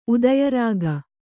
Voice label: "ಉದಯರಾಗ", kannada

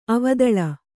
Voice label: "ಅವದಳ", Kannada

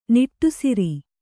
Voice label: "ನಿಟ್ಟುಸಿರು", Kannada

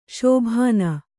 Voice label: "ಶೋಭನ", kannada

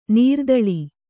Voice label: "ನೆರೆದಲೆ", Kannada

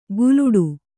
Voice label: "ಗುಲುಡು", Kannada

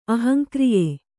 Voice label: "ಅಹಂಕ್ರಿಯೆ", Kannada

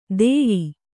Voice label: "ದೇಯಿ", Kannada

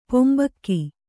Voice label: "ಪಿಂಬಕ್ಕ", kannada

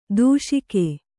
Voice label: "ದೂಷಿಕೆ", kannada

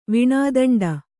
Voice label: "ವೇಣುದಂಡ", Kannada

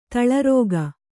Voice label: "ತಲಾರಿಕೆ", kannada

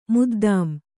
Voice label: "ಮುದ್ದಾಂ", Kannada

